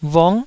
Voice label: "vung", taiwanese